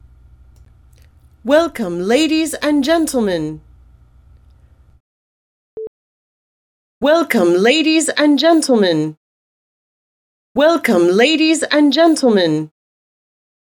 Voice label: Theatre Welcome Ladies And Gentlemen Before After Clean